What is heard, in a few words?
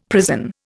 "prison", Indian; Dictionary